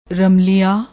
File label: "ramyila", Punjabi